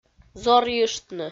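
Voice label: "зорйыштны", Komi, female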